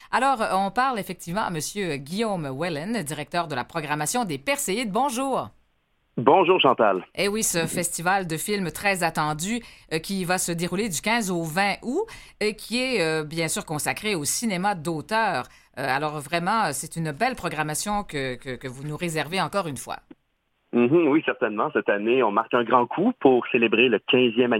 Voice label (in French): entrevue